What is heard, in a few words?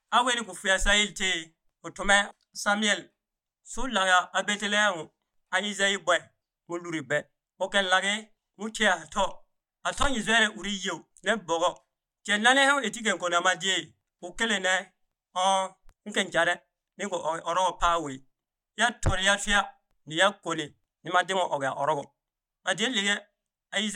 Pougouli; David oint roi; David Anointed King